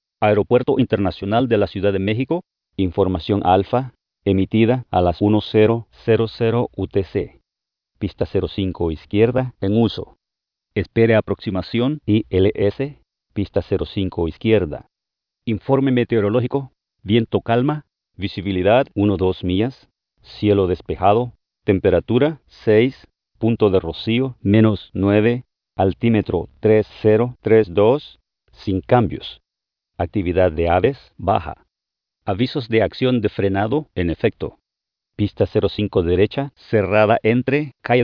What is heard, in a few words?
atis spanish; starcaster